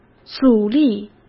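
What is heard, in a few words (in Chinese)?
"阻力", Mandarin Chinese; hsk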